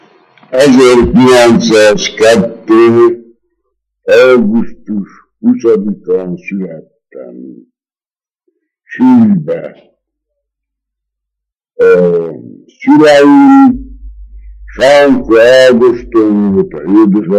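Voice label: dialect collection; nyelvjaras gyujtesek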